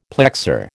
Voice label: "plexor", American